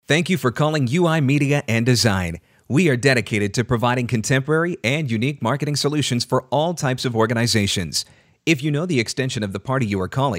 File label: Phone System; UI Media